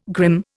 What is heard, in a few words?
"grim", Indian